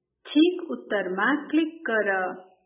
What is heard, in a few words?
instruction; grade4; vertebrates invertebrates new